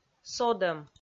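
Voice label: "содӧм", Komi, female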